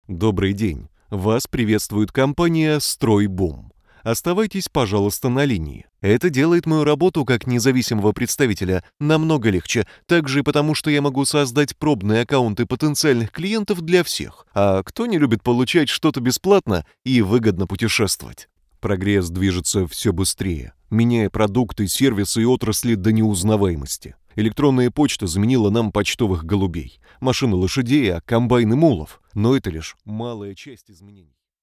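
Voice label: Russian Russia male narrative; russian voice over talent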